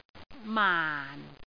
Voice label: "maan4", cantonese

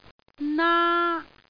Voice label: "naa", Cantonese

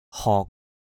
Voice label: "hok6", cantonese

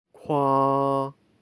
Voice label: "kwaa", Cantonese

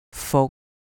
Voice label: "fuk1", cantonese

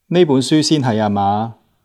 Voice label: "aa6 maa5", Cantonese

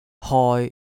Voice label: "hoi1", cantonese

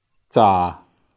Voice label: "zaa4", Cantonese

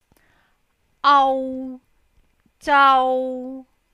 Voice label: "au zau1", cantonese

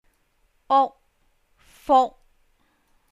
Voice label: uk fuk1, cantonese

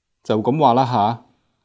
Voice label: "haa2", Cantonese

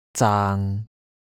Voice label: "zaang6", cantonese

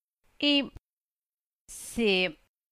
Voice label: ip sip3, cantonese

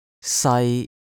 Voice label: "sai1" Cantonese

